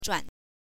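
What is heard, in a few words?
"zhuan", Mandarin Chinese, neutral tone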